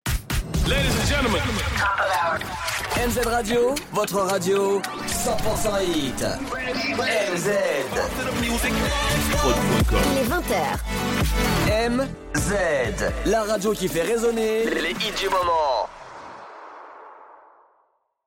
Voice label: Radio Top H 20h; Mz